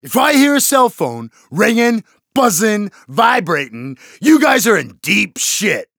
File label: 'Cellphone' (explicit) (text message tone); Payday The Heist; Dallas